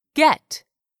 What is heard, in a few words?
"get", English; 3000 words